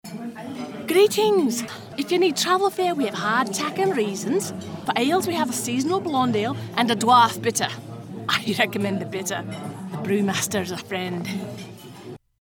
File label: serving wench